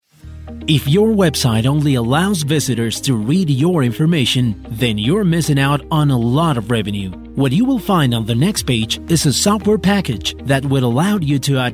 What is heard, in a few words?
spanish voice english sample